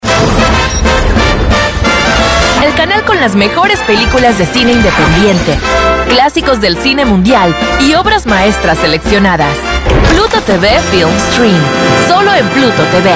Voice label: Latin American Neutral Spanish